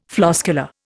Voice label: "floscular", Indian

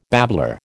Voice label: "babbler", American

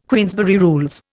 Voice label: "Queensberry Rules", Indian

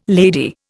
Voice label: "lady", Indian

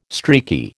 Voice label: "streaky", American

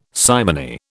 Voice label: "simony", British

